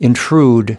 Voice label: "intrude", us

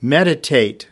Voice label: "meditate", us

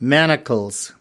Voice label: "manacles", us

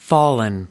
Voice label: "fallen" "fall", us

